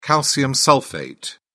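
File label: "calcium sulphate", English, British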